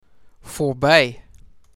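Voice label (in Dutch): "Voorbij", Dutch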